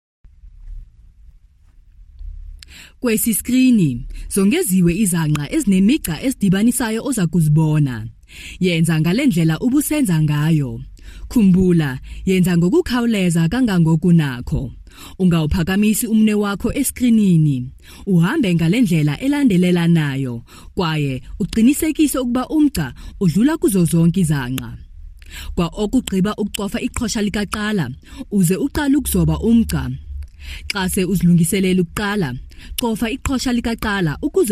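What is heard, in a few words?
Xhosa, general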